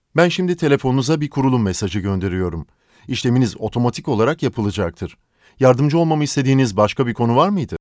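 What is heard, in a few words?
turkish interactive voice response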